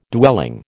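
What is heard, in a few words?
"dwelling", American English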